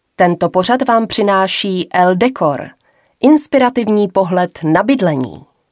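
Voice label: F CZ commercial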